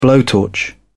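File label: "blowtorch", British English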